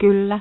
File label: "Yes", es, Adult, Male